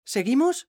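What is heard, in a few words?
"animo", Spanish; mekanta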